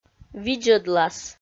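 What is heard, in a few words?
"видзӧдлас", Komi, female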